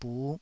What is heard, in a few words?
"buh5", taiwanese Syllables